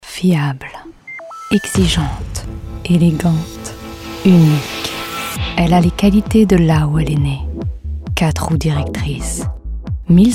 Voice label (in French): French VO